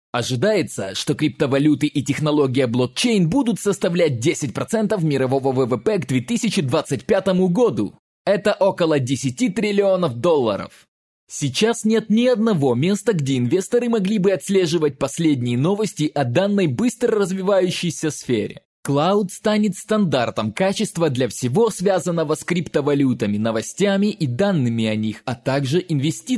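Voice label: Russian male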